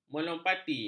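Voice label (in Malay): "melompati", Malay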